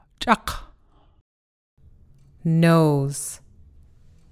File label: hard k, Gitxsanimx alphabet; tsak; nose; giganeex